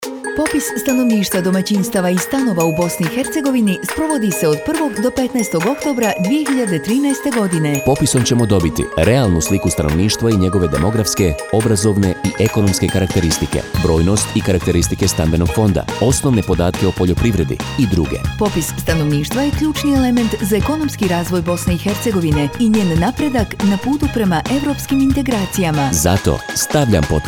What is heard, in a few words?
Radio jingle; Popis; javna kampanja